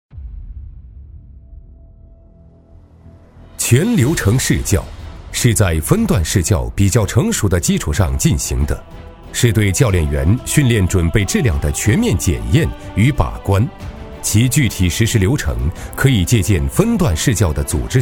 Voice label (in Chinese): 男, 工程汇报, 正式大气; 中英双语 大气浑厚; 全流程示教